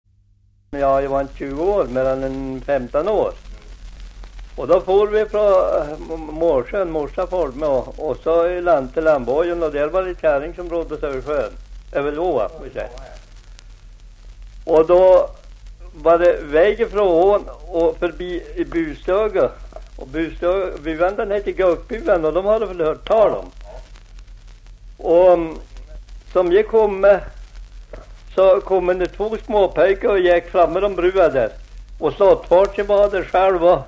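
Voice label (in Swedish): Aspås Jämtland, svenska sagor dialekter; Folkminnen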